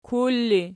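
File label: "kul", ergative, Archi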